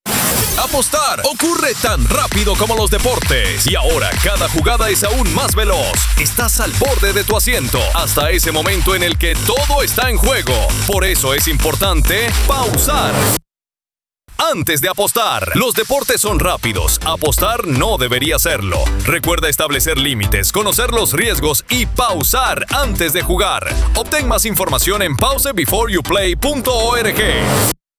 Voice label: Spanish Translation, Radio Spots; Pause Before You Play